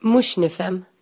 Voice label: no understand, Maltese